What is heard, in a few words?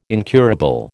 "incurable", American